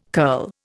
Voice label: "curl", Indian

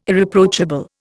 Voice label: "Irreproachable", Indian

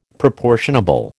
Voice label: "proportionable", American

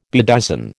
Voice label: "bedizen", American

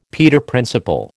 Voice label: "Peter Principle", American

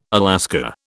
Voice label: "Alaska", British

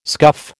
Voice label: "scuff", American

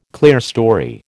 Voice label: "clerestory", American

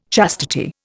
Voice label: "chastity", Indian

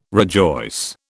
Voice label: "rejoice", British

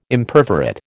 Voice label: "Imperforate", American

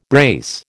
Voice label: "brace", American

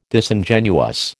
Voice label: "Disingenuous", American

